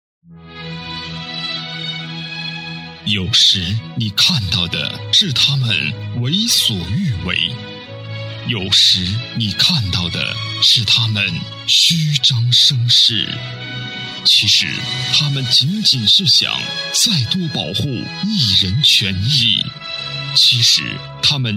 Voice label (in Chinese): 宣传英雄微视频样音